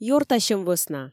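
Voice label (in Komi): ёртасьӧм вӧсна, Komi, female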